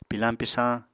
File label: "pilañ-pisañ", Apatani